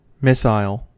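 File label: "missile", American English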